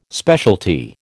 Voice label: "specialty", American